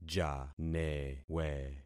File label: "janewe", concat syllables; Affixing experiment